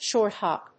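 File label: "short hop", English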